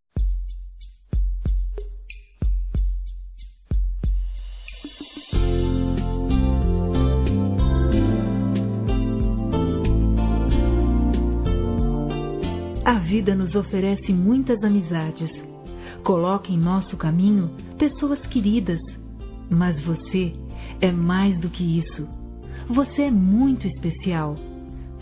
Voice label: Mãe de consideração, Fem, Dia das Mães; Telemensagens 2017

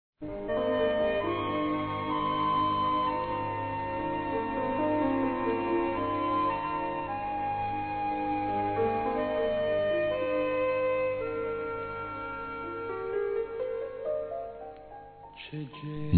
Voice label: DECLARAÇÃO P MÃE, Masc; Dia das Mães; Telemensagens 2017

